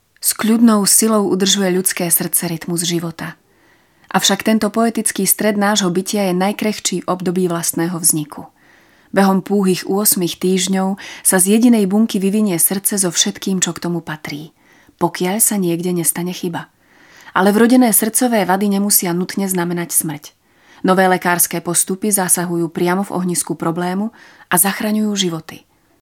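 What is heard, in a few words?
Female Slovak documentary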